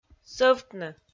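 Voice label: "сӧвтны", Komi, female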